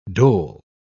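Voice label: "dhole", English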